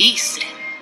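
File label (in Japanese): Japanese; claymore; Isley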